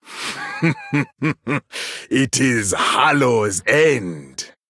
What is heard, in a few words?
Male Troll, HAPPY HALLOWEEN